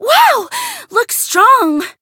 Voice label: Voice encount fear